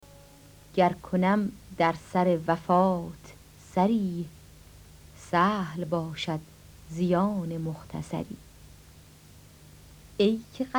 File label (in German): Rezitation